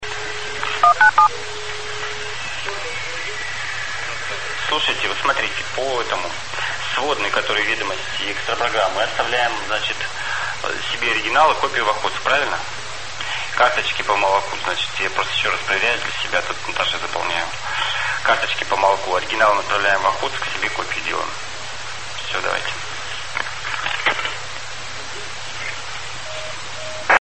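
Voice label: Russian Phones, Satcom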